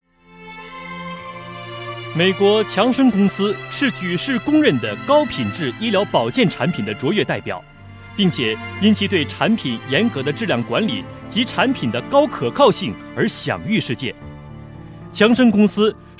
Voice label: 男 企业专题, 国语男声配音; 北方经济型; 美国强生公司

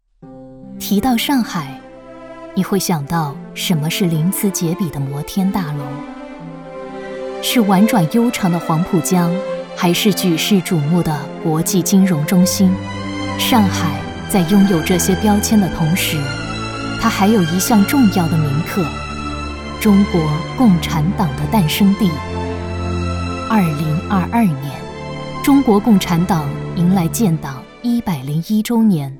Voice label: 女 专题 城市 舒缓 大气

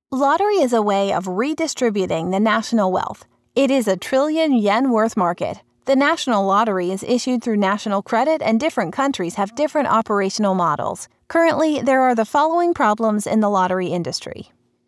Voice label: 视频配词 EN

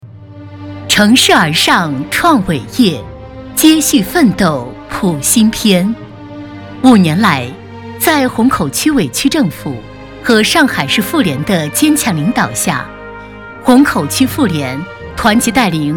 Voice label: 女 大气稳重; 虹口区

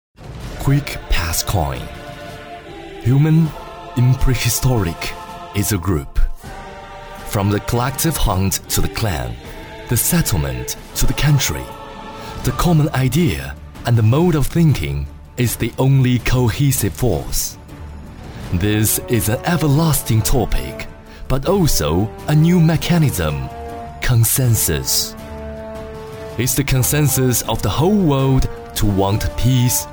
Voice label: 男 英文 专题

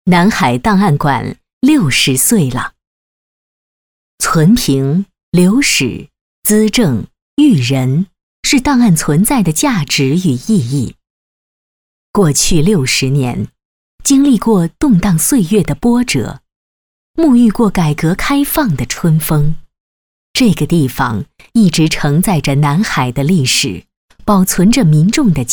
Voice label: 女 专题 深沉介绍; 南海档案馆

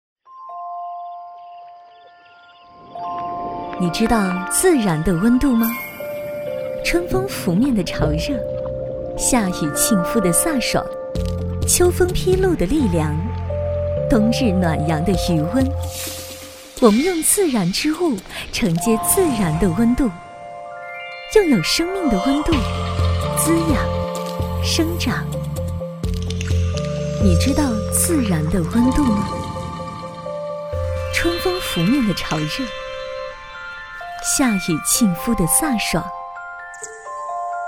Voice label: 女 专题

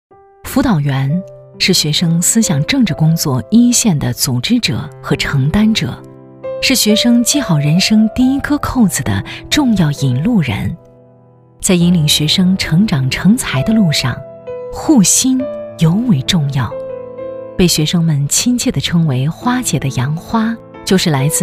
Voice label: 女 专题; 杨花

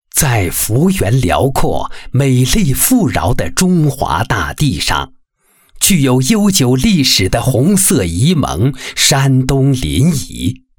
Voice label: 男; 临沂亿大利集团

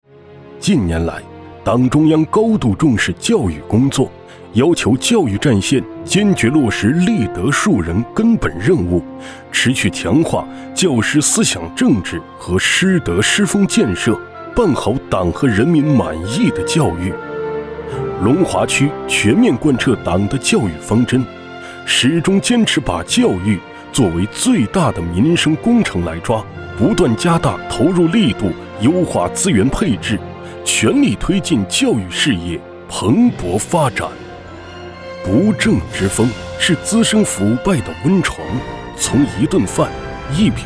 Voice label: 男 专题; 党风廉政建设

